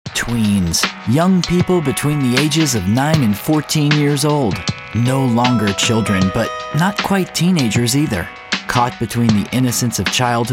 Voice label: Narration